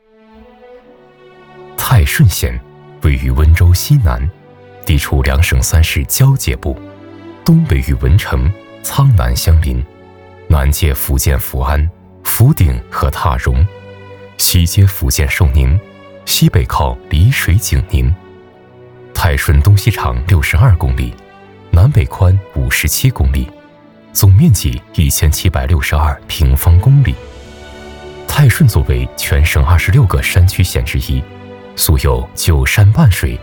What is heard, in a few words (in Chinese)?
大气 年轻 城市 宣传